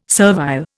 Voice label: "servile", Indian